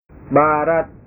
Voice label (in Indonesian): "barat", bahasa